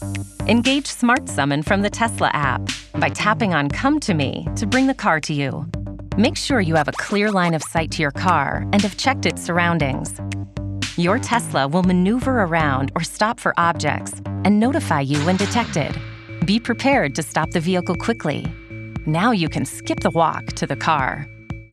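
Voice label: American; tesla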